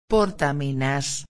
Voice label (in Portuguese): "portaminas", espanhol